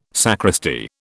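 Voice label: "sacristy", British English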